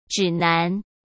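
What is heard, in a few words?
"指南", Mandarin Chinese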